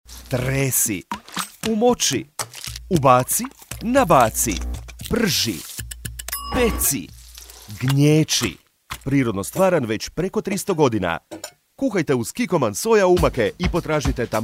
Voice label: commercial

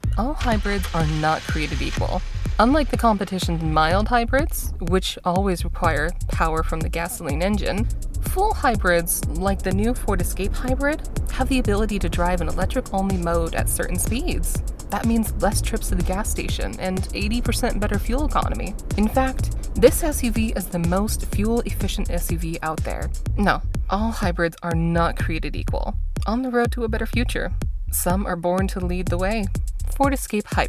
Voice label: Commercial; Ford

